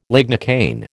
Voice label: "lignocaine", American

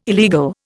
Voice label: "illegal", Indian

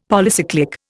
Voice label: "Polycyclic", Indian; Dictionary

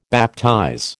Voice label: "baptize", American

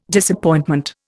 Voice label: "Disappointment", Indian

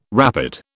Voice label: "rapid", British

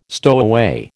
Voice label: "stowaway", American

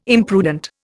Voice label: "imprudent", Indian

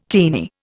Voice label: "teeny", Indian

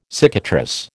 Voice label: "cicatrice", American

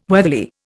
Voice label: "weatherly", Indian

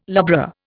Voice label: "lubra", Indian

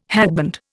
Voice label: "hatband", Indian

